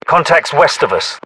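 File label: threat infantry west, British; battlechatter; localized english; uk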